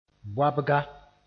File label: "boabga", moore; headscarf